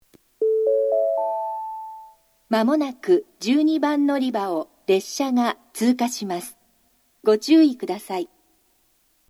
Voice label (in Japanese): 12番のりば通過放送, 新幹線ホーム; 筑後船小屋; 標準の放送内容です。; 4回流れます。